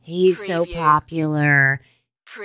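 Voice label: Female He So Popular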